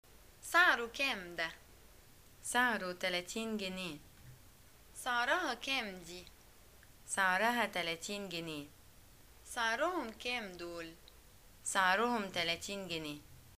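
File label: shopping how much, arabic, Egyptian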